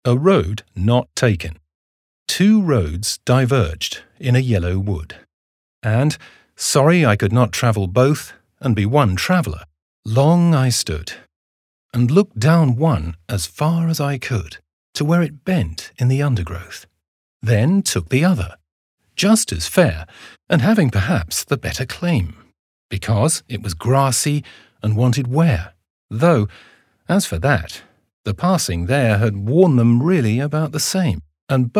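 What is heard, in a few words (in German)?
British English, Schauspiel, Poetisch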